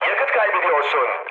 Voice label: tank damaged, mood med, speech tur; War Thunder